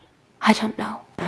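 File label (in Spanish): Voice Acting, Spanish, female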